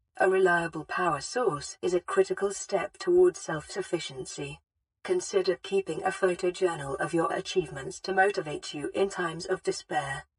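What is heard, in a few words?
Bioreactor voice over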